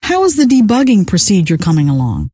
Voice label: rant, scientist female

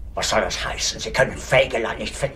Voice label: chat

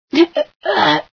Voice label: female sci drag off

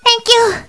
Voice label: "thank you"

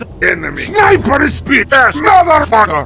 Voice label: heavy rant

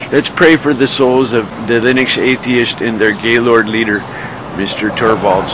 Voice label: chat

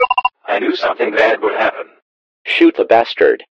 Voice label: radio; sven; resistance; dialogs

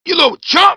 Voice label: "rapid fire", grunt

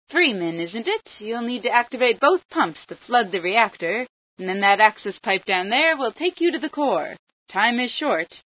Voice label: female sci; flood

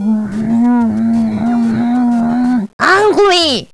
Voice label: angry

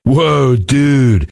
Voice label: cheer

